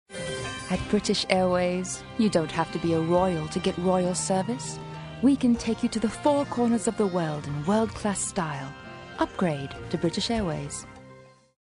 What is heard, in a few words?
british accent; British Airways